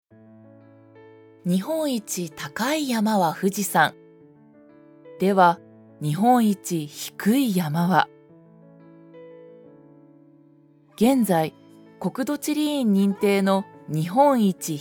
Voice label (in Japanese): ナレーション-ノーマル